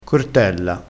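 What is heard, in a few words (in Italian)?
"cortella", vocabolario dialettale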